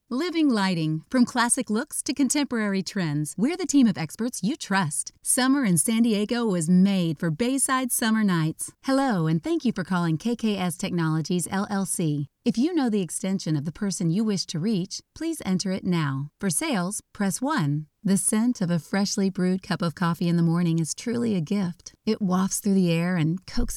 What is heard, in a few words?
VO Female